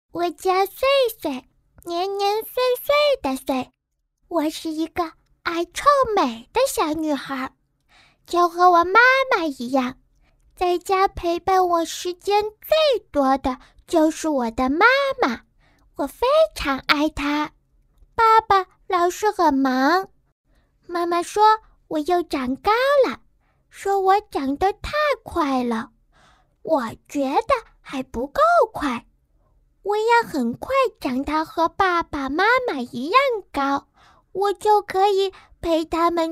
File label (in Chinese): 动画 童声 3~5岁女童